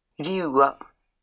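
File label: "riua", Maori; transport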